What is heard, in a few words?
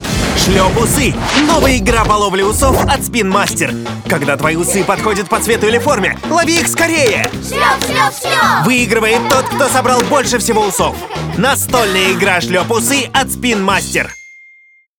rus sound